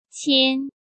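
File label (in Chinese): "签", Mandarin Chinese; hsk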